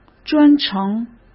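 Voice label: "专程", Mandarin Chinese; chinese flashcards; hsk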